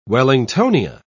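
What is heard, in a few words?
"wellingtonia", British English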